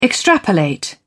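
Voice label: "extrapolate", British English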